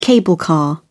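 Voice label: "cable car", British English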